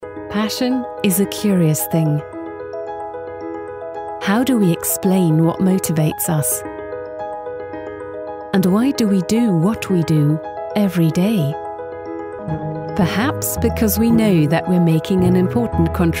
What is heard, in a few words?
EN Image; M Plan